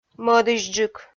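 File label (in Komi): "мӧдысьджык", Komi, female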